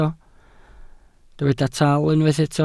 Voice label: "tal", welsh